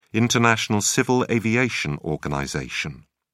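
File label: "international civil aviation organization", British English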